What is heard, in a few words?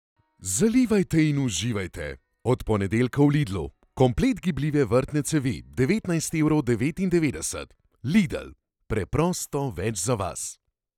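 Slovenian, male, commercial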